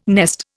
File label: "nest", Indian